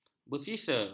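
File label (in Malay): "bersisa", Malay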